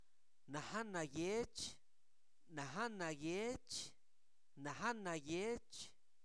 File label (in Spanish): está amarillo, Zapoteco, santo domingo ablarradas; INALI; Zapoteco Albarradas; verbos